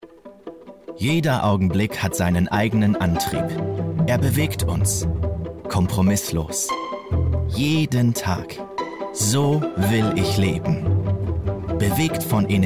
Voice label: Werbung Auto emotional

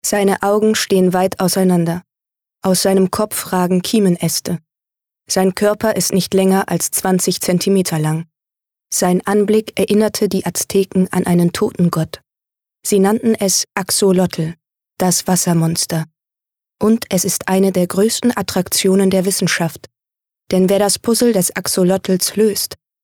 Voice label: Dokumentarischer Text; LOFT VOICES

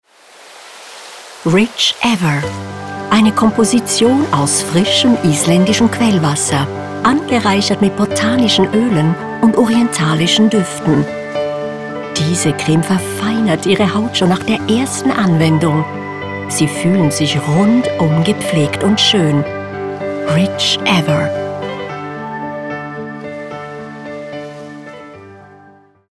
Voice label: Werbespot; Rich Ever.

